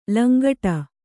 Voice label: "ಲಂಗಡ", Kannada